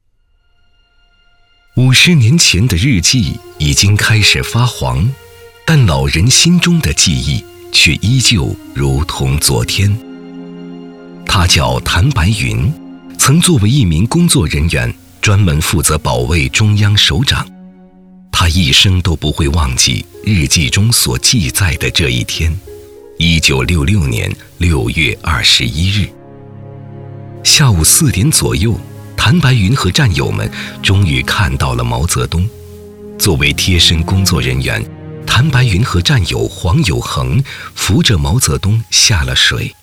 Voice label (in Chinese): 男, 讲述, 人物传记